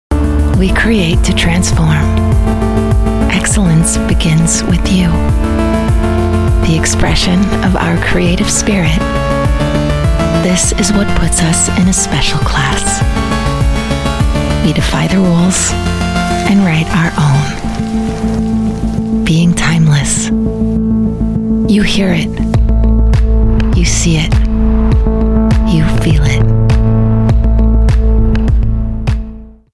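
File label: US English luxury automobiles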